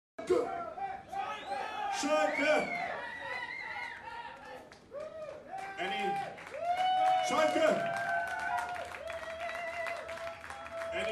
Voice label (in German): ansage